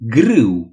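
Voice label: "wheat", Romanian; gcompris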